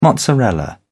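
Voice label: "mozzarella", British English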